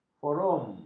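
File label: "forum", Malay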